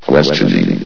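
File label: "questioning", announcer voice; Source Engine; bshift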